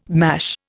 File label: "mash", Indian